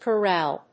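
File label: "chorale", English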